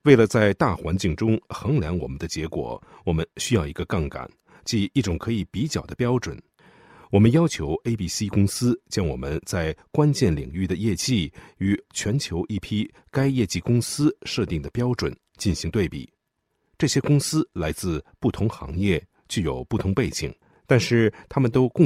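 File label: Chinese general; GoLocalise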